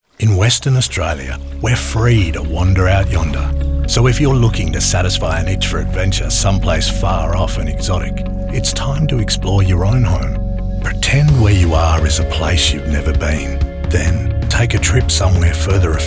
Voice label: radio ad; Tourism Western Australia